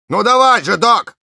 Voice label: Engineer activate charge, ru

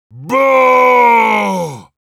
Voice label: Heavy jeers, ru

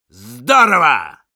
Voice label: Sniper cheers, ru

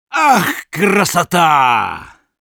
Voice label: Sniper cheers, ru

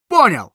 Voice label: Scout yes, ru

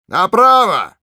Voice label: Engineer head right, ru

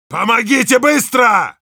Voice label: help me, ru

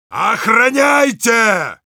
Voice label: Heavy help me defend, ru

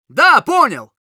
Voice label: Scout yes, ru

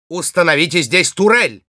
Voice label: Spy need sentry, ru

